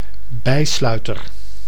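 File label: "bijsluiter", Dutch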